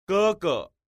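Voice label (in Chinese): ge ge, Chinese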